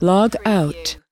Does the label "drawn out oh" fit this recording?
No